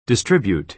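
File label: "distribute", American English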